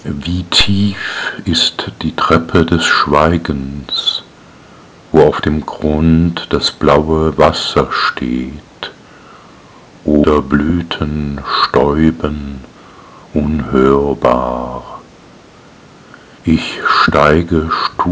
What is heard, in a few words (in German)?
Die weibliche Stimme